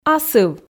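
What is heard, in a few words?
"асыв", Komi, female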